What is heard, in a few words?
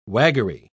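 "waggery", British English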